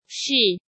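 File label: "Shì", Chinese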